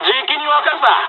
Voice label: dialog, dialogs japanese; us midway; War Thunder

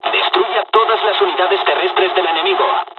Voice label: ground attack, dialogs spanish; War Thunder

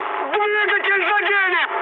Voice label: damaged engine, mood high, speech ru; War Thunder

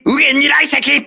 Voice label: voice message torpedo right, crew ships japanese

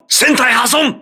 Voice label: hull breach, voice message, crew ships japanese

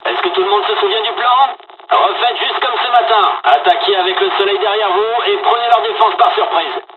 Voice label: dialog, dialogs french; War Thunder; midway

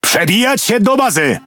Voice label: Speech Quick Commands Enemy Base, PL; World Of Warships

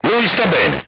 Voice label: voice message direct hit, crew tanks italian

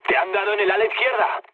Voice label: left wing, mood low, speech; War Thunder

